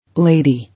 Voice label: "Lady", English